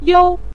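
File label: "you" neutral tone, Mandarin Chinese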